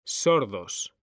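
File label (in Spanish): "sordos", Spanish; mekanta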